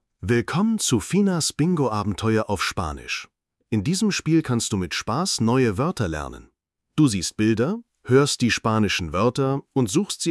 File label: Bingo Spanish; espanol